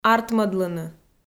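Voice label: "артмӧдлыны", Komi, female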